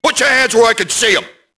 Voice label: police alert; Max Payne